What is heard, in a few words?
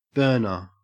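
"burner", Australian English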